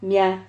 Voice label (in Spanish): "mia", Silabas